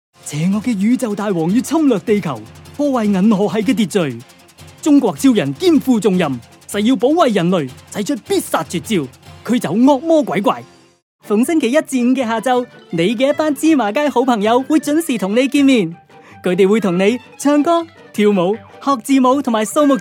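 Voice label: Cantonese Megamix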